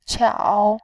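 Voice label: "qiao", Mandarin, tone 3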